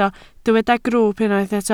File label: "grwwp", Welsh